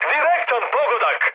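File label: Serbian bomb success mood med, speech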